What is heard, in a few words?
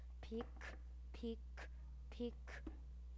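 "pick", spoken word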